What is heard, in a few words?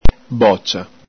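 "bocia", Veneto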